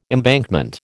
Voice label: "embankment", American

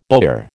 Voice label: "bowyer", American

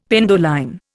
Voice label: "penduline", Indian; Dictionary

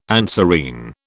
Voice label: "anserine", British

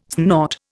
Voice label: "snort", Indian

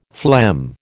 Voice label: "phlegm", American

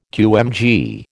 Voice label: "QMG", American

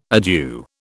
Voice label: "adieu", British

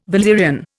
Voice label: "valerian", Indian

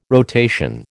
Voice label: "rotation", American

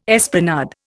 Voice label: "esplanade", Indian

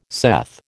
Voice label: "saith", American

